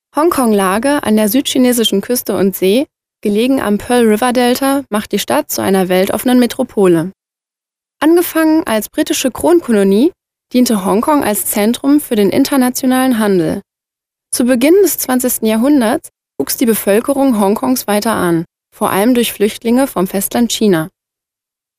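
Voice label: German female corporate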